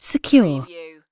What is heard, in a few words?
"secure", UK female; lynne publishing